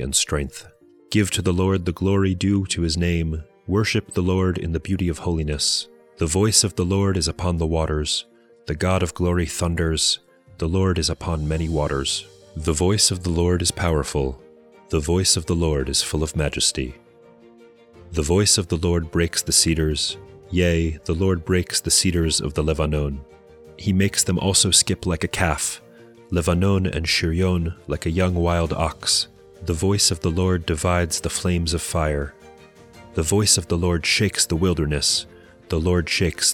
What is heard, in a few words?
poetry and prayer psalm english